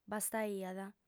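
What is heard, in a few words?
"bastaiat", lingua sarda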